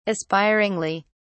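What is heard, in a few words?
"aspiringly", English, British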